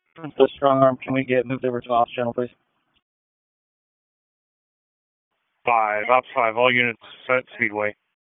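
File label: Police Northeast District Dispatch; Raleigh; p25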